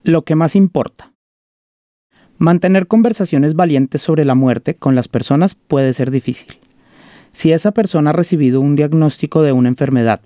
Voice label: Palliative Care Spanish What Matters Most